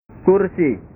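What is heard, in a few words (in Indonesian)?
"kursi", Indonesian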